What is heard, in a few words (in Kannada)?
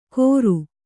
"ಕೋರು", Kannada